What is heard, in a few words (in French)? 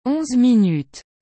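"onze minutes", French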